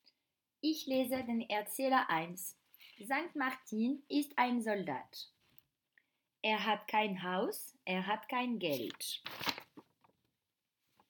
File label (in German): Erzähler